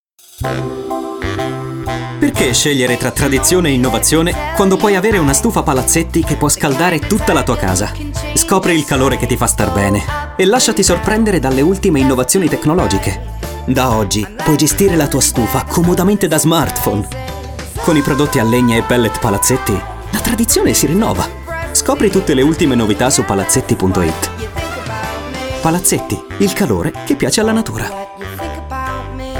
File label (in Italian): spot allegro informale